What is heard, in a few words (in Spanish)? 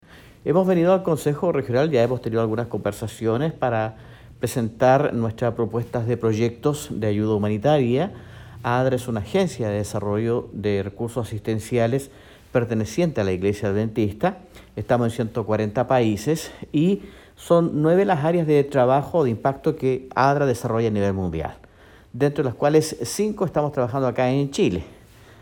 Cuña; Adra Chile; entregaron donacion de ropa clinica a hospital base valdivia